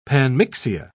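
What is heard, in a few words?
"panmixia", British English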